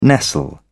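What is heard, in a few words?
"nestle", British English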